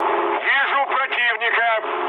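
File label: Russian enemy detected mood med, speech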